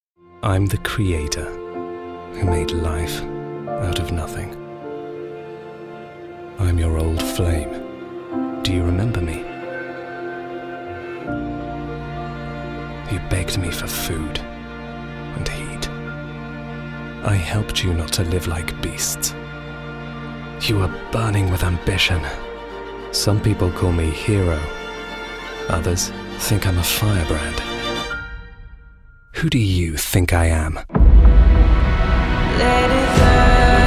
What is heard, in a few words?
english british movie trailers